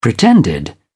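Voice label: "pretended", British English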